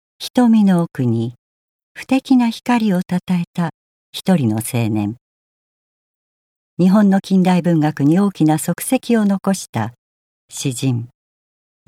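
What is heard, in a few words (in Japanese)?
解説ナレ, ボイスサンプル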